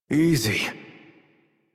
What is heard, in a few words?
Horse Calm Adult; Warframe; Duviri